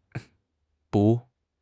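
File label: "dòu", Mandarin Chinese; HSK1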